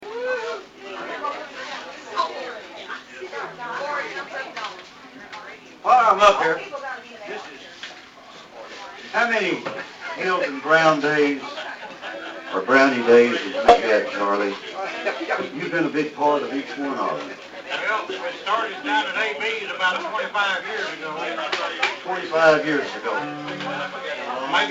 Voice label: Announcements; 2010 Brownie Day